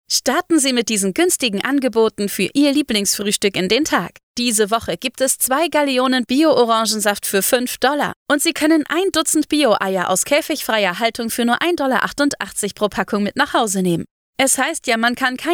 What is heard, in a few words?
Casual Conversational